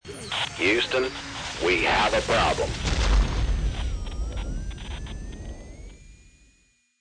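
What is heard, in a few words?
Houston Problem, Male; Talking Sound Effects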